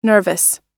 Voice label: "nervous", pronunciation

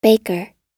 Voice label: "baker", us

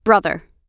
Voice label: "brother", us

